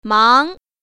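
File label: "mang2", Chinese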